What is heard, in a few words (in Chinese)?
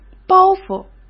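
"包袱", Mandarin Chinese; hsk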